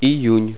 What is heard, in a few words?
"june", Russian